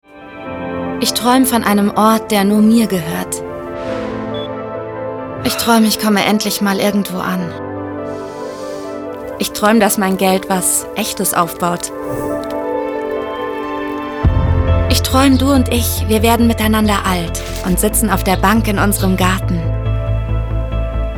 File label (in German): Werbung; Interhyp